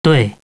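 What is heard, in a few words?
"yes", male; TALKNOW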